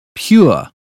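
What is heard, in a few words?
"pure", British phonetics; 英语音标发音（剑桥版）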